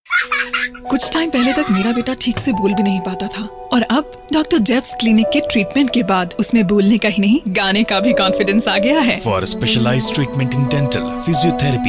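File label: Hindi female Medical Ad; voice over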